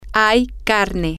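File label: female, spanish; there Is Meat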